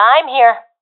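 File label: in position, professional female; vo; Counter Strike Model Pack; CS:GO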